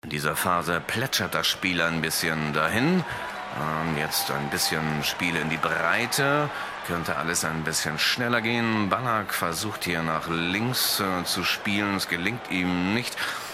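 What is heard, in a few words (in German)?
Hörspiel, deutsch Männer; sprecher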